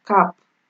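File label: "cap", Nigerian Pidgin, female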